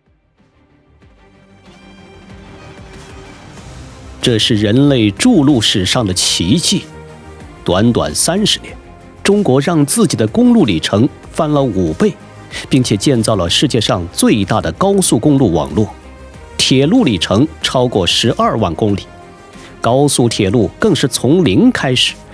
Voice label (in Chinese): 宣传介绍